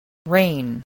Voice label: "rain", voice spelling

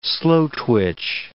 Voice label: "slow-twitch", english

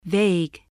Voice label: "vague", English

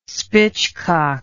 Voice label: "spitchcock", english

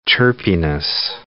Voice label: "chirpiness", English

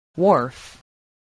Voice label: "wharf", spelling

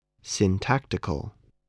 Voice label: "syntactical", English

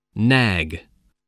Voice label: "nag", voice

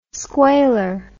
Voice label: "squailer", English

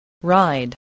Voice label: "ride", English spelling

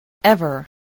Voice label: "ever", voice, english

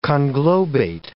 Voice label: "conglobate", English

